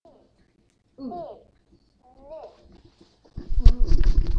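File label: "zip", spoken word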